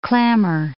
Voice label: "clammer", English

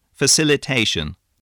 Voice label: "facilitation", voice

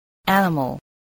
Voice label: "animal", spelling

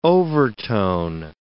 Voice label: "overtone", English word

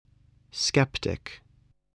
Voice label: "sceptic", english spelling

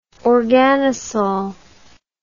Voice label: "organosol", English

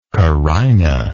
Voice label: "carinal", English

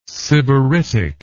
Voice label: "sybaritic", English word

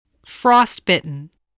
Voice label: "frostbitten", English voice, spelling

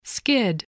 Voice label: "skid", English

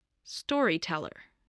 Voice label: "storyteller", voice spelling

